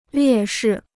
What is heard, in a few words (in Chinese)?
"劣势", Chinese